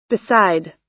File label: "beside" US